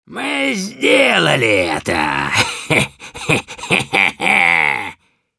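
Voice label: cheers, Russian; Demoman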